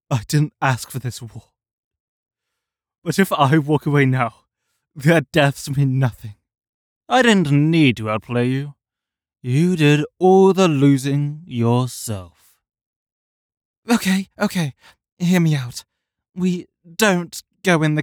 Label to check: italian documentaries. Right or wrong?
Wrong